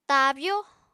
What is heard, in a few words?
"taabyó", Apatani